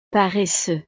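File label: "paresseux", French; estados animo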